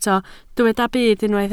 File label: "byd", Welsh